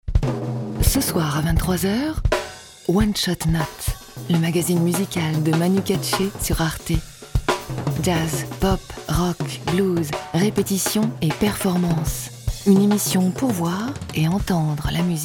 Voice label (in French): radio; manu katche; arte